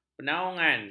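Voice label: "penaungan", Malay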